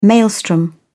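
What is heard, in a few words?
"maelstrom", British English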